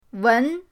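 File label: "wen", Mandarin pinyin, second tone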